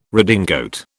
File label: "redingote", British